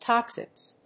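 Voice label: "Toxotes", English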